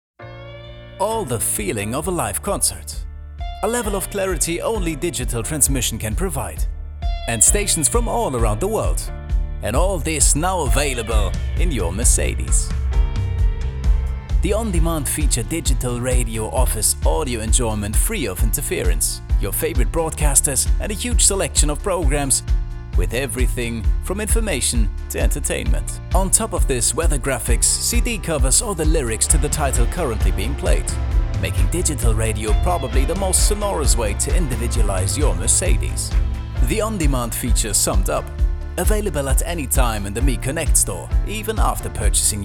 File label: Ad; Mercedes Me Connect